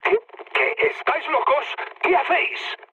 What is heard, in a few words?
player killed ally, mood med, speech; War Thunder